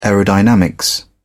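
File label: "aerodynamics", British English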